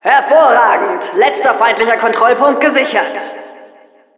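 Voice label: Announcer success secured last control, german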